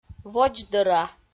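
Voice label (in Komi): "водздӧра", Komi, female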